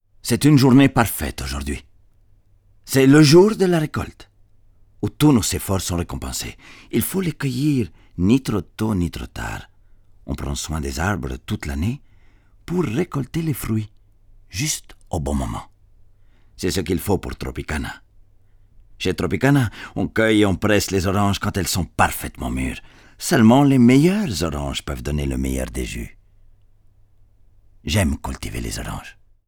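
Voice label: Accent Mexican; TROPICANA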